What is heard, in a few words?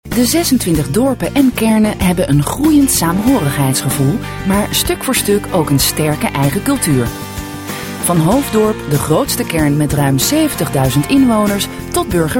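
nl neutral, Dutch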